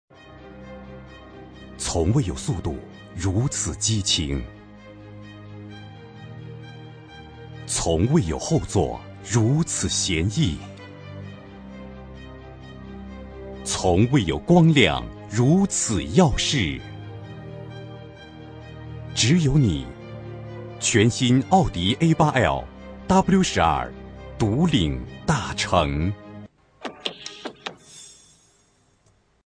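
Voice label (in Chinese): 男广告; 奥迪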